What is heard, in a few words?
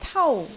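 "tau1", Cantonese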